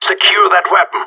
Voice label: friendly fire, radio; GSG9